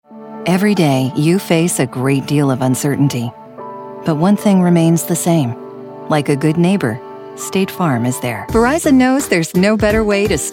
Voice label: Commercial